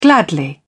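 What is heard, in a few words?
"gladly", English, British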